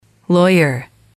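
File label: "lawyer", English, female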